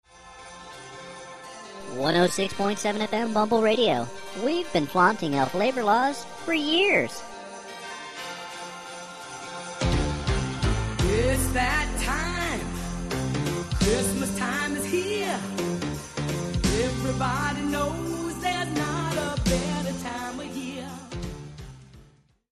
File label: elf with elf voice